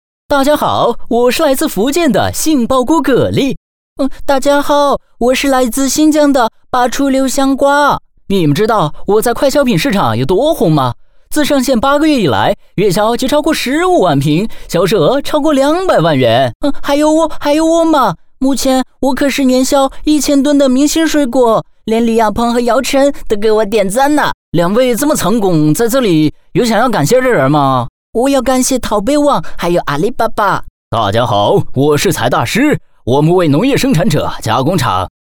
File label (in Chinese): 短剧配音; 财大狮宣传动画分镜头剧本